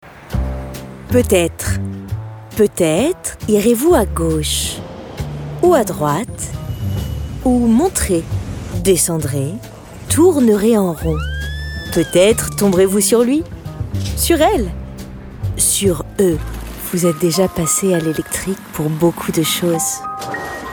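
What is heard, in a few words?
Commercial French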